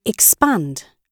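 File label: "expand", British English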